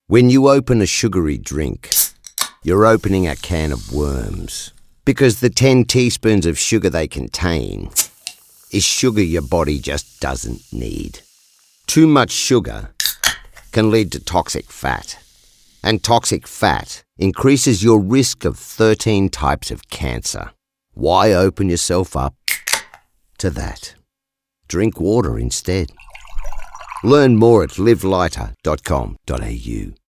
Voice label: radio